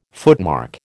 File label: "footmark", American